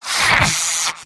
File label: jeers; medic; mvm